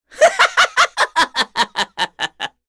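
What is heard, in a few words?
vox Happy, Korean; heroes; Ezekiel; kingsraid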